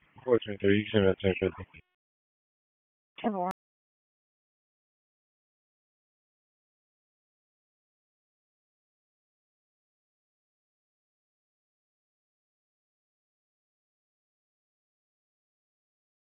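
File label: Raleigh Police Southeast District Dispatch, p25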